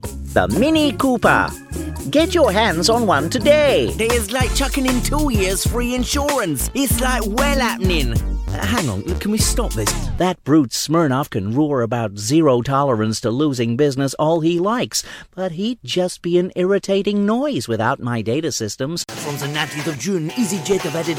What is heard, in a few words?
Character